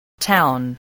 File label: "town", voice